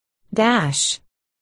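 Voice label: "dash", spoken word, articulation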